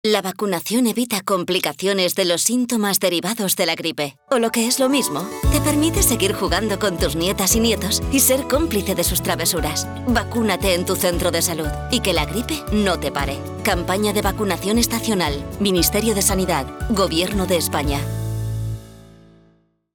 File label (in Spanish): Castellano; Cuna Mayores